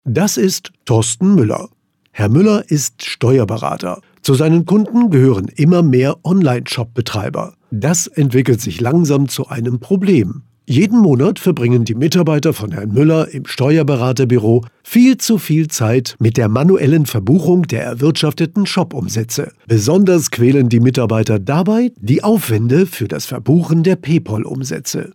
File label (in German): text fuer erklaervideo